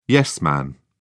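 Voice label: "yes-man", British English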